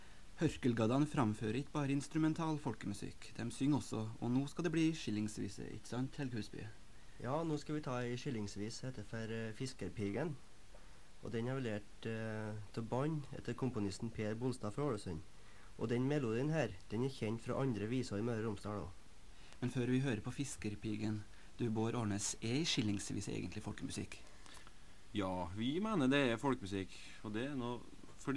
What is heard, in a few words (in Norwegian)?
Tale